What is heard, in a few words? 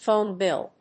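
"phone bill", English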